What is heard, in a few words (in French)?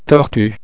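"tortue", French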